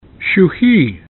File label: "ChuuHii", Kumeyaay; Name